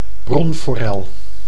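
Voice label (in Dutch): "bronforel", Dutch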